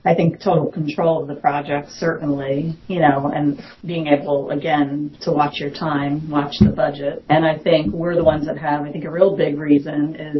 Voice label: Testimonial